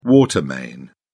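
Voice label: "water main", British English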